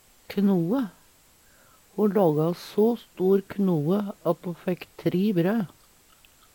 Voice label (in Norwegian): knoe, Numedalsmål; Substantiv hokjønn; Hushald, mat, drikke; E lågå so stor knoe at e fækk tri brø.; Veggli